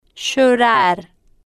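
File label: "llorar", slow, Spanish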